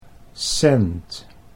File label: "cent", Dutch